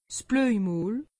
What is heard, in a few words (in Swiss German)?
"blojmoll", alsace; medecine